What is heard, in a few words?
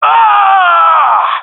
round start, gendarmerie male; vo